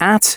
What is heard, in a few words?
announcements male